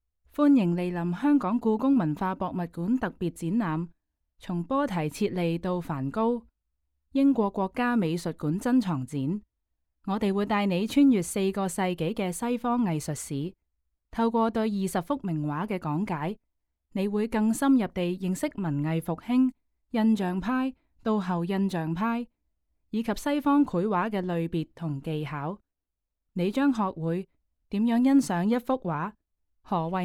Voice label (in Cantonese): Canto; 展覽簡介; 查理斯．威廉．蘭姆頓肖像（紅衣男孩）; 湯瑪斯 · 羅蘭士爵士（1769–1830年）; 英國國家美術館; 從波提切利到梵高：英國國家美術館珍藏展